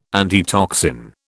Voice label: "antitoxin", British